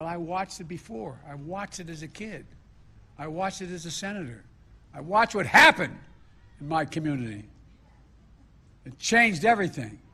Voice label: PRESIDENT BIDEN RANDOM YELLING